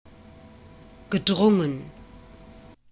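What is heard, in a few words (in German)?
"gedrungen", German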